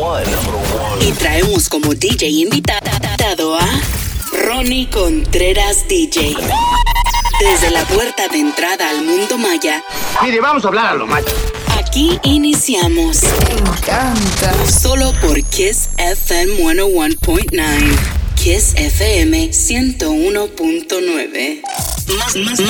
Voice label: Imaging Spanish, Professional Radio Imaging Voice Over, bilingual voice artist